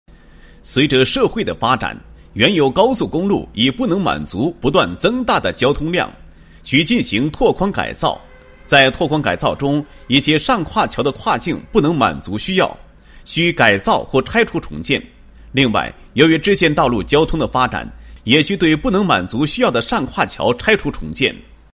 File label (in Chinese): 男 解说词; 高速公路运营状态下无腹拱式上跨桥